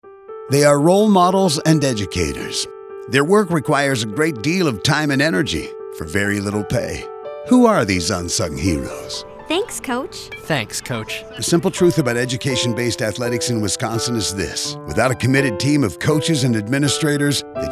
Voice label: audio spot